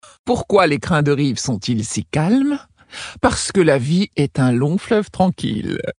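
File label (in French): Female Blague, voix françaises; Tauren Haut Roc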